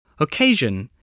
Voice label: "occasion", voice, spelling